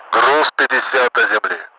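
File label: fifty to ground, Russian; Mi-8MT; DCS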